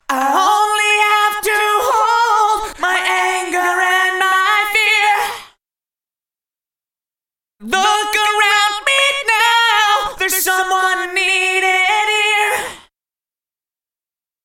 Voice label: Eventide H910 Vox 112ms Delay